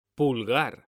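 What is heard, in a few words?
"pulgar", Spanish, male; mekanta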